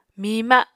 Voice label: "miima", Apatani

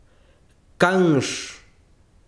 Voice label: besiro piedra, chiquitano

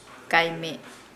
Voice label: "eat intransitive", Nukuoro; Nukuoro Talking Dictionary

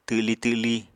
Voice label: "twli twli", Apatani

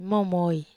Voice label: "momoi", Wamesa

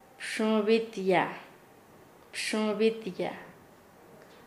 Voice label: "hacer sombra", Nasa Yuwe; paez

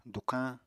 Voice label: "dukañ", Apatani

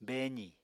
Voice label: "beezì", Apatani

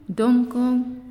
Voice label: "Stand", Naasioi

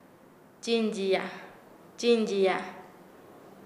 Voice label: Nasa Yuwe; raspar; paez

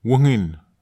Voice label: "wughin", netwar

